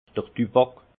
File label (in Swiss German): "duwack", Alsace; vin; tabac